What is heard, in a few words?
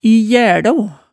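"i jælo", Hemsedal dialect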